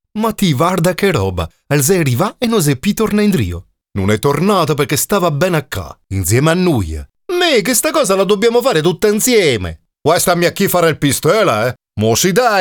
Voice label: Italian impersonations